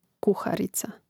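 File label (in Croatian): "kuharica", Croatian; Mrežnik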